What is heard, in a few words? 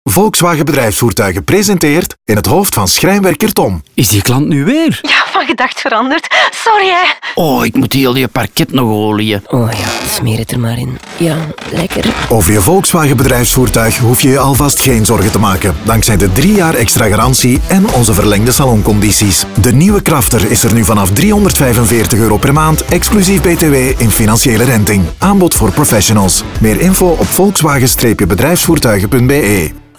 Radiospot; Minder kopzorgen voor vakmannen dankzij de saloncampagne van Volkswagen Bedrijfsvoertuigen